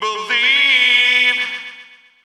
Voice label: Male FX Vocals; Houseworx